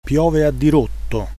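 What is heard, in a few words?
rain, Italian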